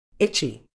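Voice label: "itchy", British English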